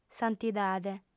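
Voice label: "santidade", lingua sarda, female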